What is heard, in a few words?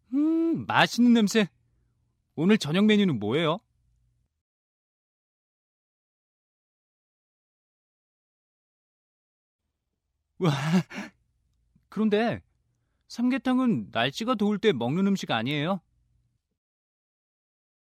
mom english